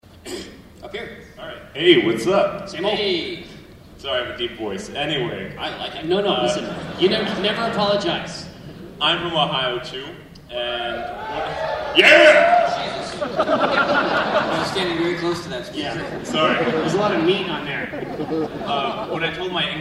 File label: sanskrit